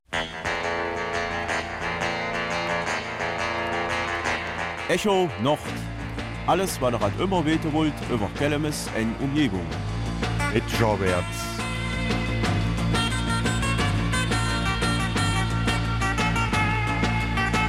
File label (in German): Kelmiser Mundart; Neuer Beirat für Denkmalschutz und Heimatkunde